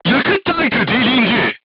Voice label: voice message fuel tank damaged, crew tanks turkish